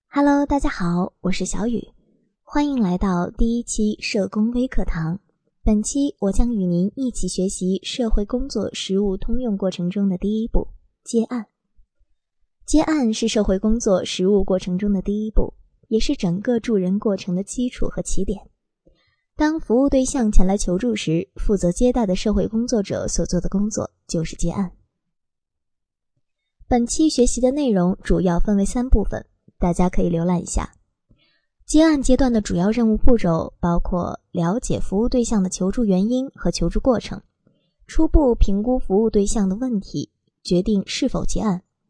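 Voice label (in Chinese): 女专题 宁静娴雅; 接案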